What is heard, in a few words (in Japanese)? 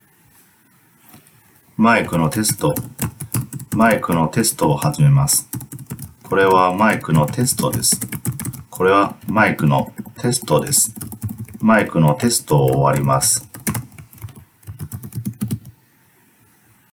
サンプル音声3内蔵マイクとキーボード入力